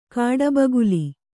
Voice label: "ಕಾಡಬಗುಲಿ", Kannada